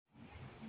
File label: Voice Note